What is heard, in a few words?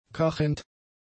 "cachend", German; adjectives